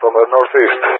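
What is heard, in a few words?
"from the NE", English, GREECE; Allied Flight; DCS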